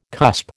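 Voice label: "cusp", American English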